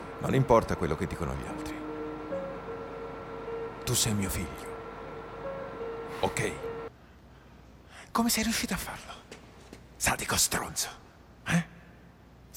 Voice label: Cinema doppiaggio; Line of Duty

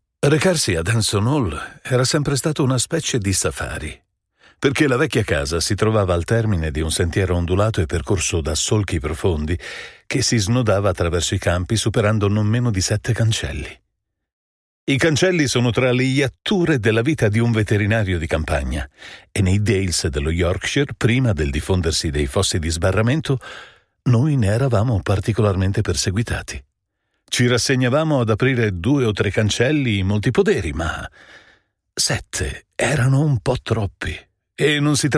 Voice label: audiolibri

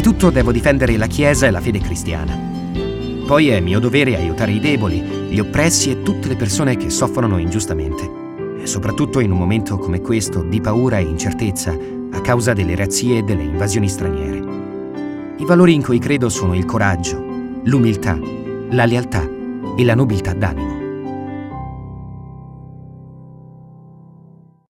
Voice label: intervista cavaliere

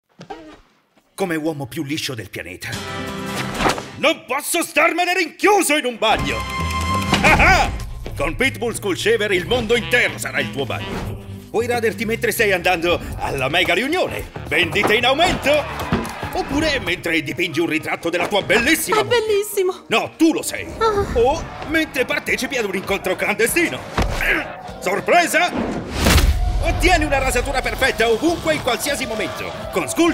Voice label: Test Doppiaggio Italiano; Pitbull Skull Shaver

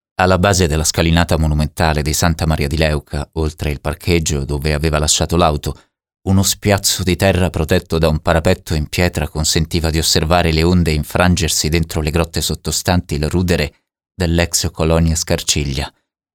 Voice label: voce narrante, italian male

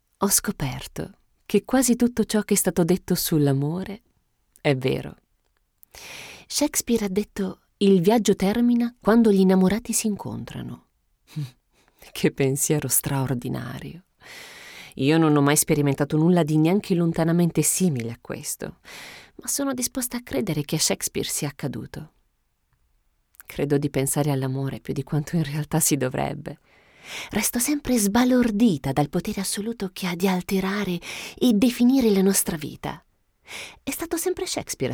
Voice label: tono naturale monologo cinematografico

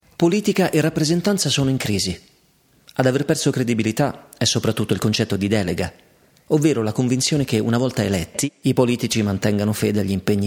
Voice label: Documentario istituzionale

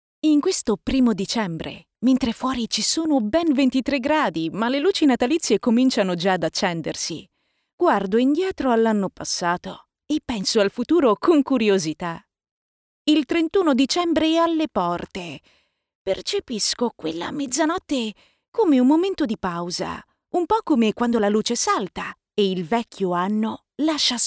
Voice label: Colloquiale

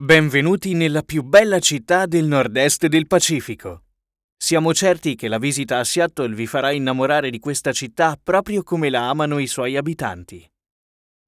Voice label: Demo documentario

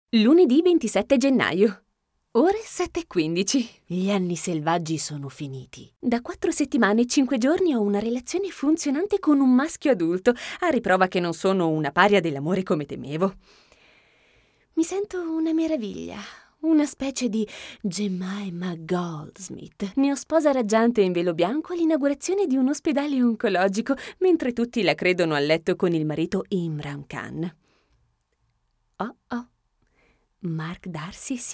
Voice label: audio libro estratto; Bridget Jones

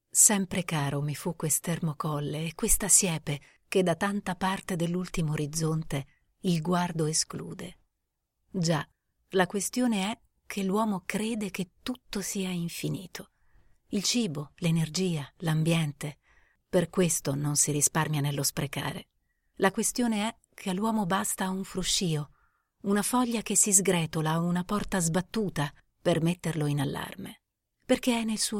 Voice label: Spot Sociale

